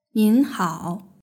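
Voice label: "ninhao" Chinese word; guixing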